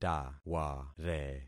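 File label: "taware", concatenated syllables; Affixing experiment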